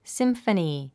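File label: "symphony", English word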